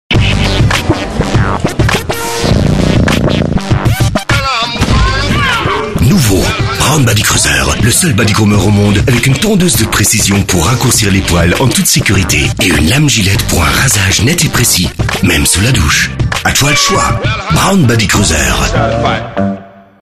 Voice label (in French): TV FR